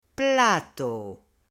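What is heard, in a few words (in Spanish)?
"PLATO", Spanish, female; AppLenguaje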